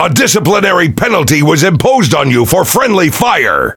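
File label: Pirate Speech Friendly Fire Punishment, English pirate; World Of Warships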